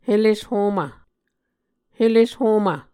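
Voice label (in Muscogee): "heleshomv", Muscogee word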